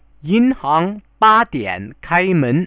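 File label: yinhang badian kaimen, Chinese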